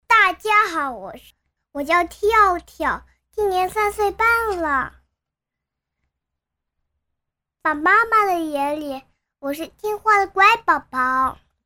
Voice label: 5岁男童声; 最美的礼物

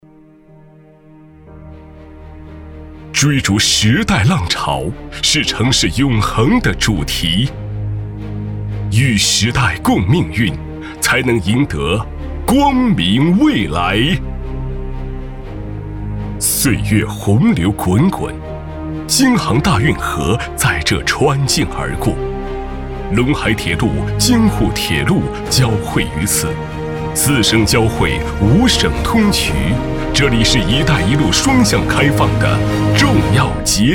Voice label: 男 大气浑厚 招商宣传片

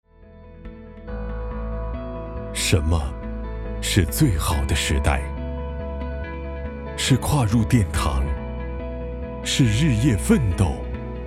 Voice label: 男,广告; 碧桂园

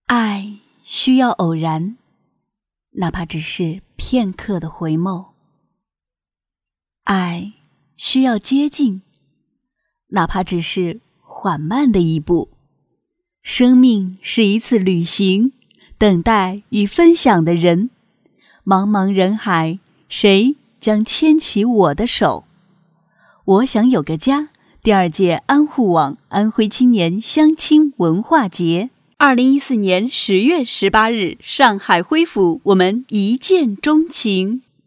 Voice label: 散文型广告, 国语女声配音; 爱需要偶然; 年轻专题

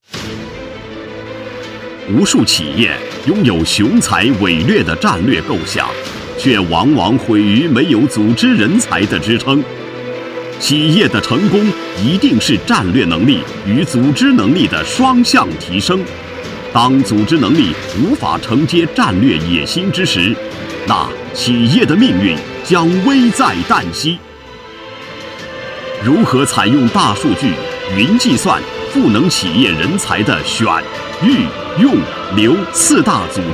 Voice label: 男 宣传片 人才管理系统; 特点人才管理系统

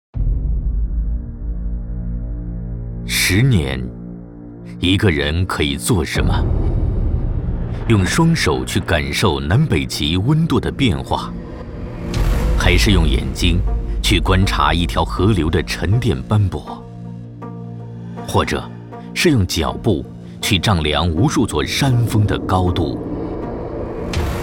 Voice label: 男 宣传片 大气; 大唐财富

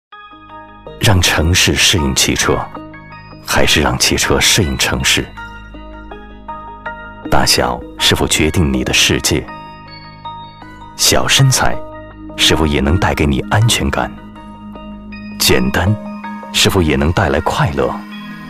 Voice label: 男 汽车广告 国语男声配音; 奔驰smart环保篇

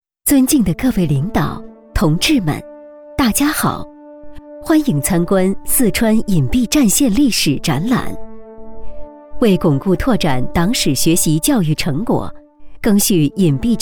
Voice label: 女 政府党政类 柔和且坚定; 党历史展厅

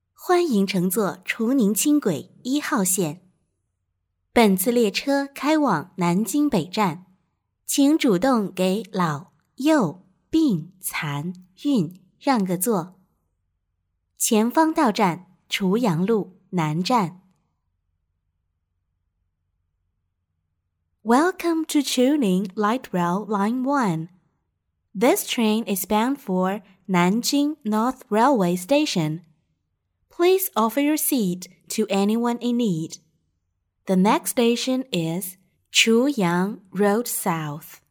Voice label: 女--中英报站-轻轨报站

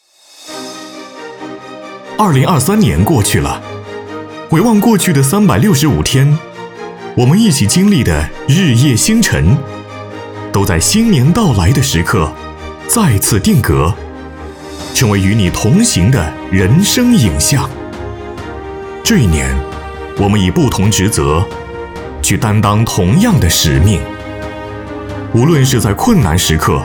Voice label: 男 专题宣传 大气激情年轻活力

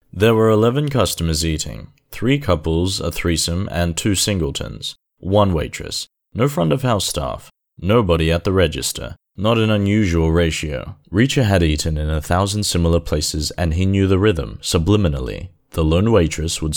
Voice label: 外籍英男, 故事叙述

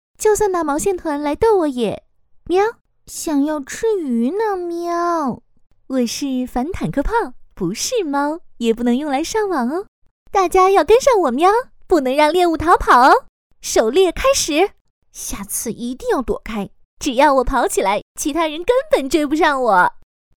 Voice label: 女 游戏角色

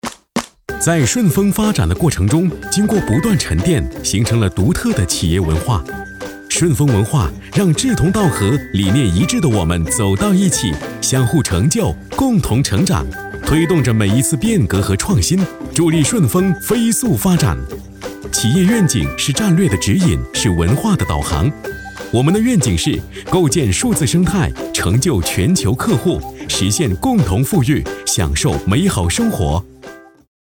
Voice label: 男 MG飞碟说风格

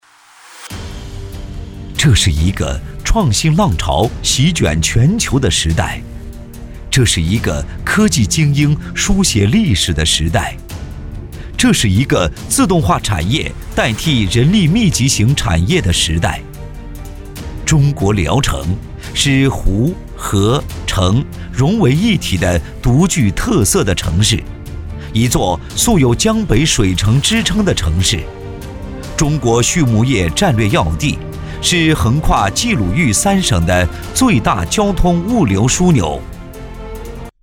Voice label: 男, 宣传片, 大气; 鲁泰公司

